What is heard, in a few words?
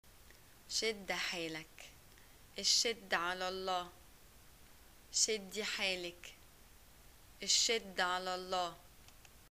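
greeting death, Egyptian Arabic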